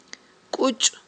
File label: "кIучI", Aghul